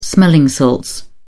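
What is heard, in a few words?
"smelling salts", English, British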